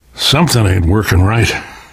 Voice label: going to die light, left4dead2 survivor voice namvet; source engine